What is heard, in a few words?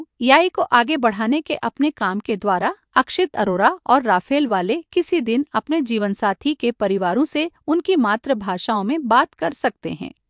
kannada f indictts speaking hindi